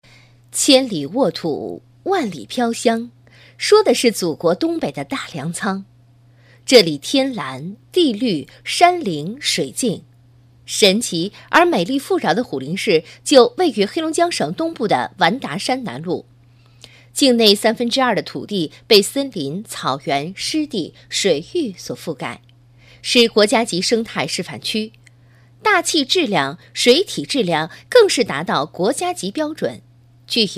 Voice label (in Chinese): 专题 女; 完达山